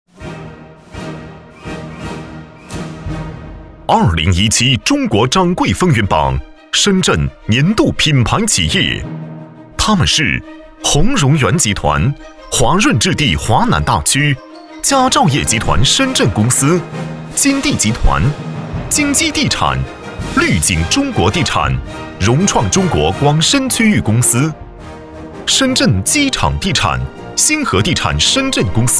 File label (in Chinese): 男 专题 颁奖 激情; 深圳地产品牌集团